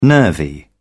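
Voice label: "nervy", British English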